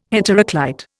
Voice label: "heteroclite", Indian